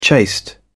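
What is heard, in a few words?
"chaste", British English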